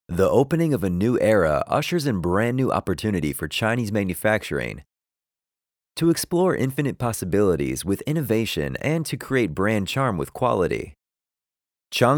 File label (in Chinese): 企业宣传片